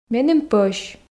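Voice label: Komi, female; меным пӧсь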